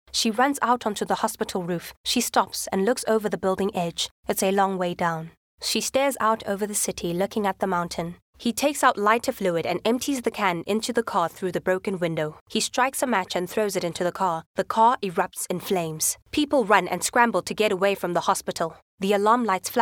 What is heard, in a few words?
ADR; NETFLIX; FATAL SEDUCTION S1